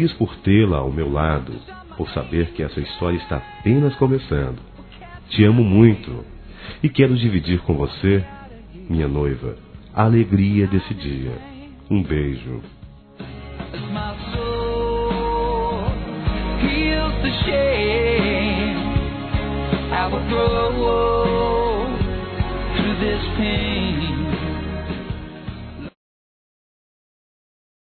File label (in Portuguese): Nosso Noivado Masculino, Telemensagens; Parabenização